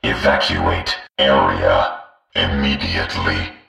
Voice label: vox Evacuate, alarm; Nuclear Tech